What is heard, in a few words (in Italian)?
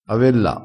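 "avella", dialettale crespinese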